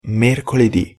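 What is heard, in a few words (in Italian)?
"mercoledì", Italian pronunciation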